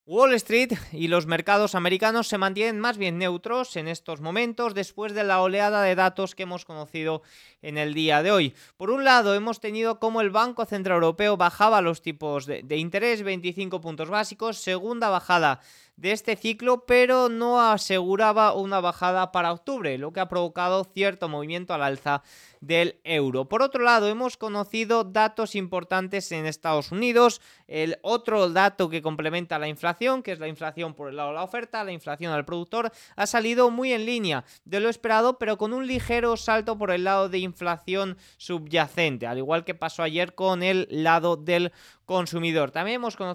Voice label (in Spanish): radio; noticias bolsa